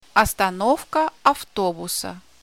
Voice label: "Bus Stop" In Russian